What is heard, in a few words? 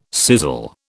"sizzle", British